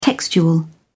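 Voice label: "textual", English, British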